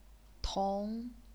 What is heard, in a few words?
"tong2", chinese pinyin